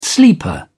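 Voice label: "sleeper", British English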